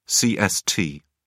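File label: "cst", British English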